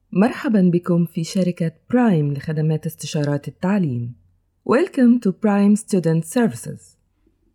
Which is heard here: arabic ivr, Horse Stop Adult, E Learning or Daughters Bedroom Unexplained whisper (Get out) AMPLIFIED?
arabic ivr